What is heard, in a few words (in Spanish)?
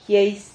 "jieis", Silabas